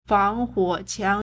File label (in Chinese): "fang2huo3qiang2", Chinese